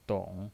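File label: "dong" Mandarin Chinese, third tone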